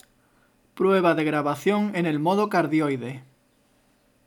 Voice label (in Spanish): grabacion tipo cardioide